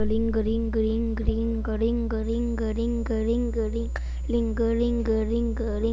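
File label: "ring", spoken word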